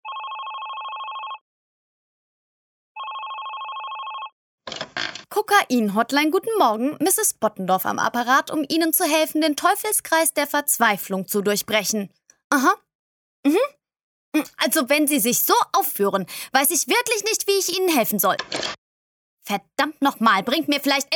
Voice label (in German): Monolog